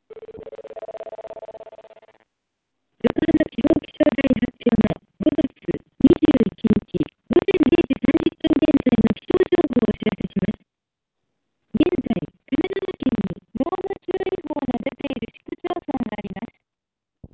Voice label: ilbc 8khz wifi

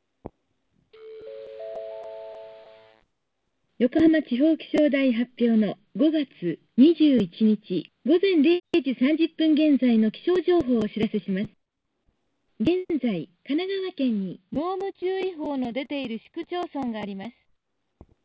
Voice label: g722 16khz hsdpa

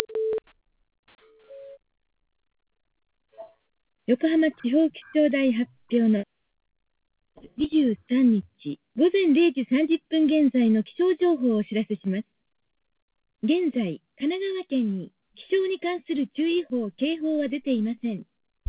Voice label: g722 hsdpa

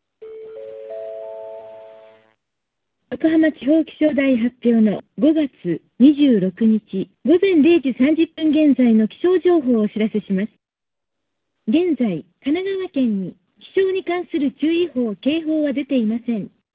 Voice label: hsdpa speex

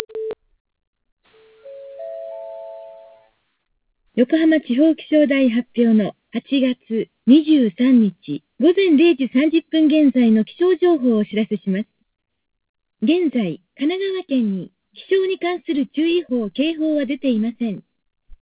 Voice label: pcmu hsdpa

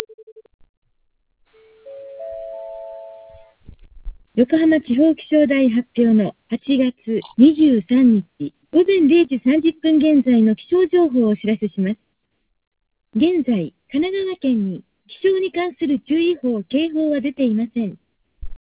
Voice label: ilbc hsdpa

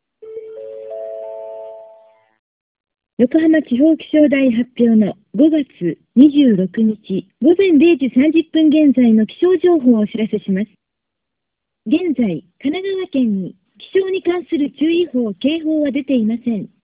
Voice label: automatic call recorder

